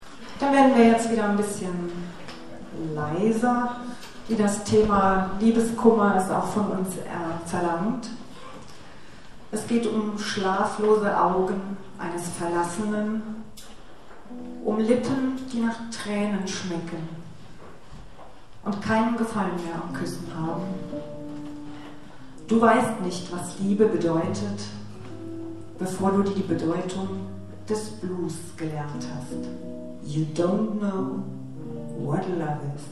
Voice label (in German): Ansage; Konzert 22. Hermeskeiler Kulturherbst (Johanneshaus, Hermeskeil)